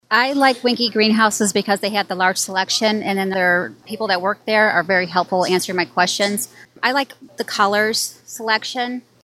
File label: Testimonial